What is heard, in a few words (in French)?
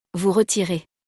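"vous retirez", French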